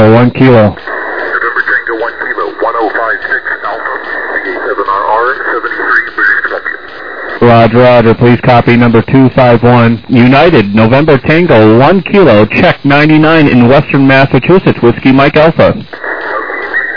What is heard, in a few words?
USB, 21MHz